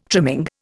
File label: "trimming", Indian